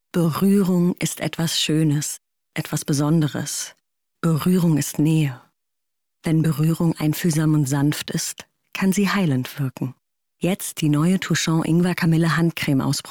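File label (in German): Werbung sinnlich DEU, German advert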